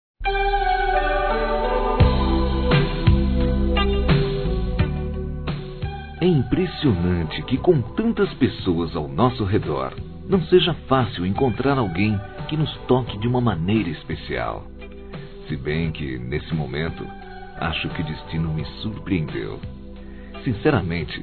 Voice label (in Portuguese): Romantica Aniversário Que Fica Masculino, Telemensagens